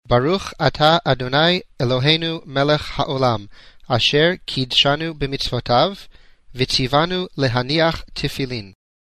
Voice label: sefardit